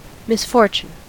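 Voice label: "misfortune", American English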